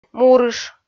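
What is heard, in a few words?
"мурыш", Komi, female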